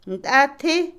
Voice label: batea, artesa, Otomí, mujer; Casa; INALI

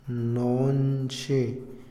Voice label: "lunes", Otomí, hombre; INALI

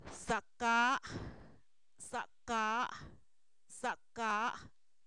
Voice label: está recargado, Zapoteco, san miguel albarradas; verbos; INALI

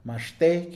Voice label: "anteayer", Mixe, hombre; Tiempo

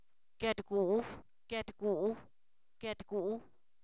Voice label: Zapoteco, san miguel albarradas; tamal; alimentos

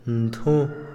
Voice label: horno, Otomí, hombre; INALI

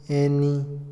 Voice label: "jugar", Otomí, hombre; INALI

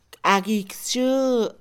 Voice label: comida, Mixe, Mujer; INALI

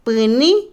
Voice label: Otomí, mujer; Acciones; golpear, pegar; INALI

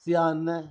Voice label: "bastante (sólido)", Zapoteco Magdalena Ocotlan; Adverbios; INALI; Haspelmath

